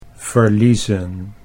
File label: "verliezen", Dutch